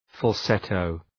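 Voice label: "falsetto", English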